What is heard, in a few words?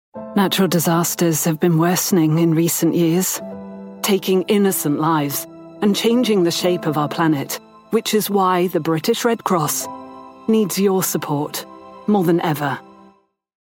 commercial british